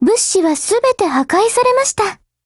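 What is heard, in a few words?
operation over, Japanese; GF Alarm; Carcano1938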